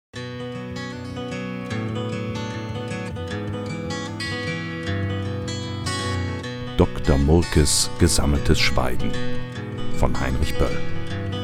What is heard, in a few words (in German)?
deutsch, Sprecher